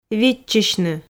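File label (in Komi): "виччысьны", Komi, female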